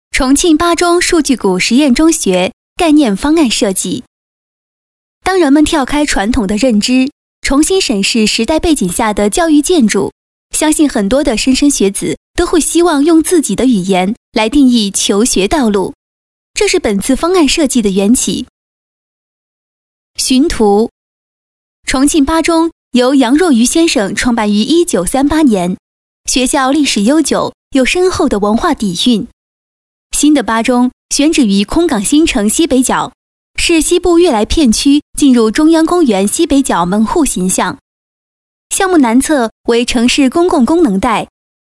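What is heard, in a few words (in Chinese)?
女专题广告 多媒体; 国语女声配音; 学校方案设计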